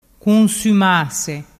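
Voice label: "cunsumase", Vernazzese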